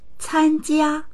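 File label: "can jia", Mandarin; 参加; 参加する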